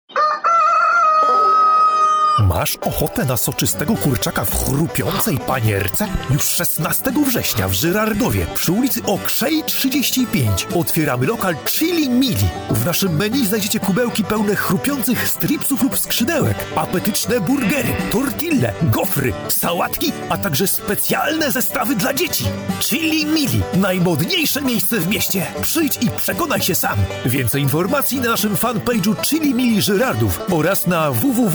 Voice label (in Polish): reklama; produkcja dzwiekowa